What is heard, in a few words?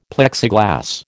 "Plexiglas", American